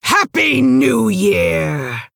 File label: female orc happy new year